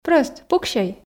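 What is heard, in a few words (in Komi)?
прӧст пуксьӧй, Komi, female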